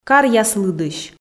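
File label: "каръяс лыдысь", Komi, female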